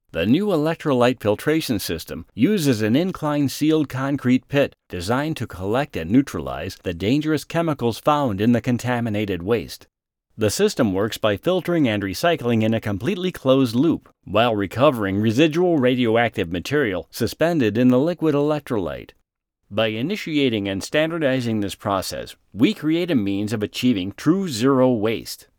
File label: Explainer; Demo